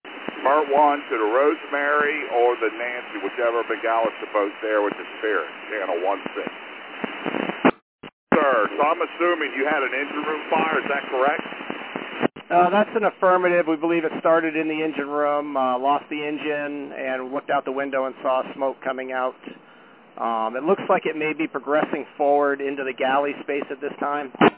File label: Combined VHF audio clips; Spirit of Norfolk